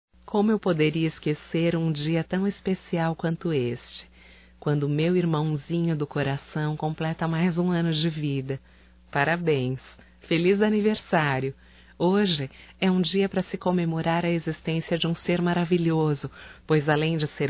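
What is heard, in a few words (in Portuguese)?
female, Telemensagens Aniversarios; ANIV IRMÃO(F)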